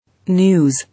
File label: "News", English